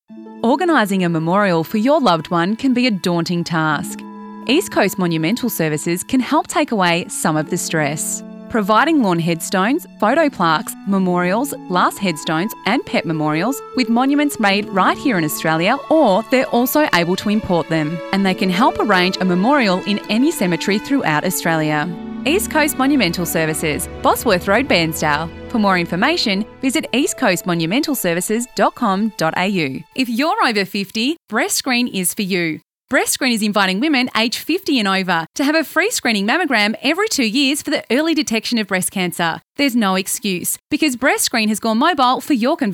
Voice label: Serious Empathetic VOs

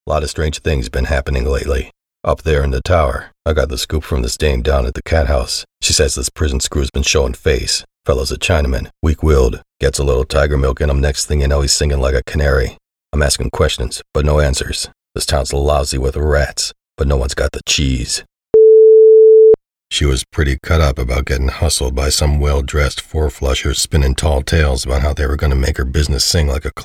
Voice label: CHARACTER film noir private detective

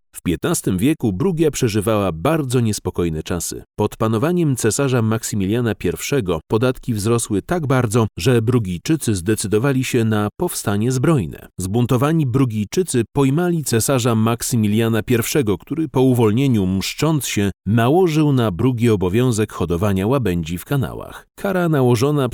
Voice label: Audio Guide; Bruges

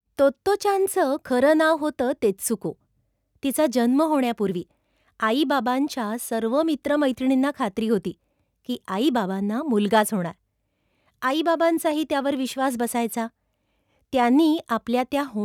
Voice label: Marathi Audiobook Expressive Raw Sample; Tottochan

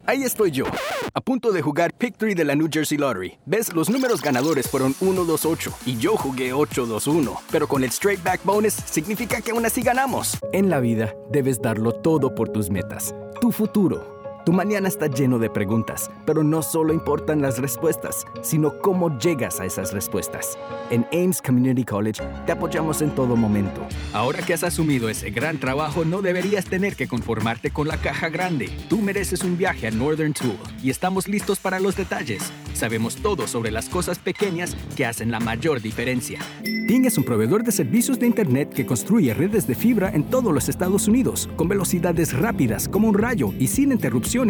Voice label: Commercial Spanish

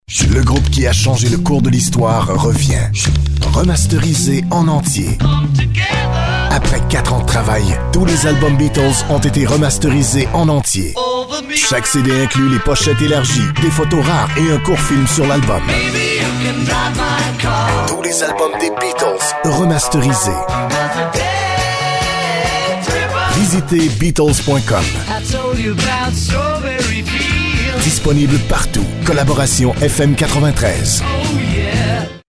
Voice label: PROMO

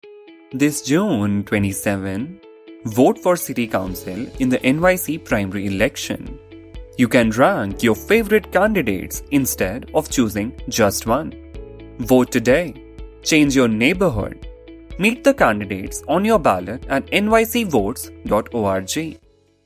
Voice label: TV spot for Voting

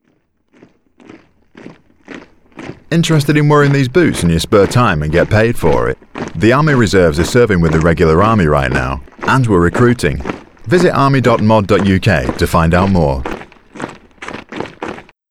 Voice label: army commercial

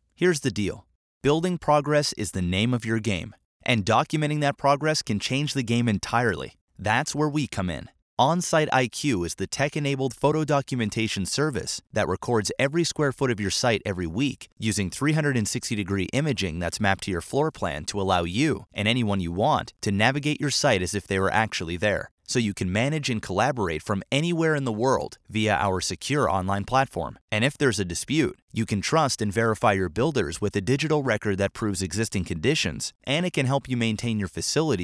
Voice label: Web Video Commerical Read

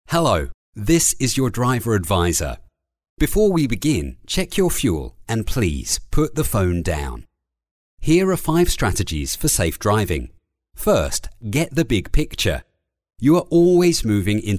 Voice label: uk corporate

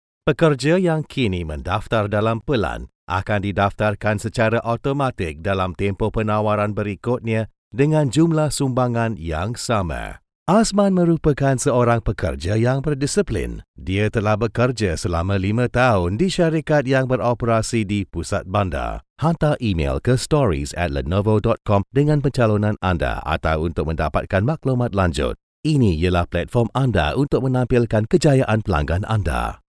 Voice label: Malay Explainer eLearning

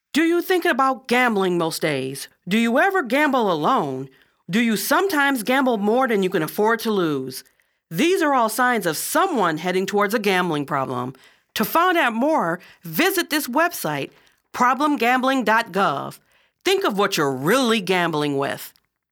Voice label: Gambling PSA dry

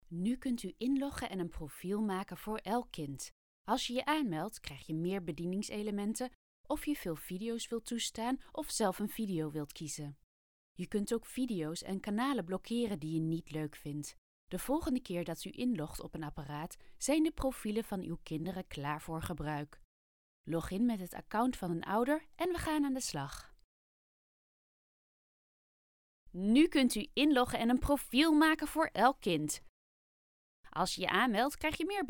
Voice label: parent and kids

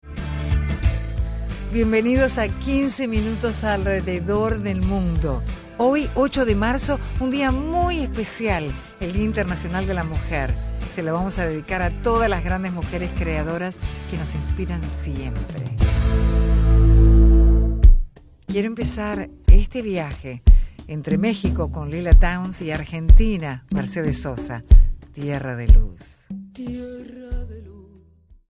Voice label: Narration Soft Medium Sell

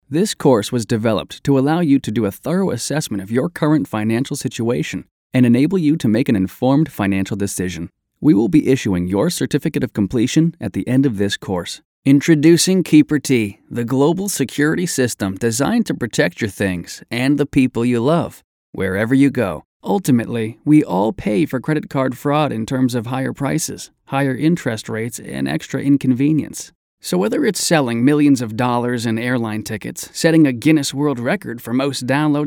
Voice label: Business Corporate and Telephone

